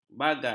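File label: "bagai", Malay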